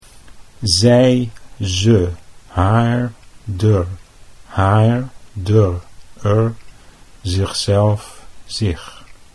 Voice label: "zij ze", Dutch

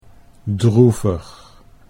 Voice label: "droevig", Dutch

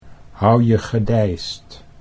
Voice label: "hou je gedeisd", Dutch

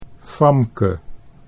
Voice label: "Famke", Dutch

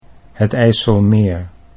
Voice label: "het IJsselmeer", Dutch

